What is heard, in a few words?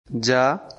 Bengali, Bangladeshi Accent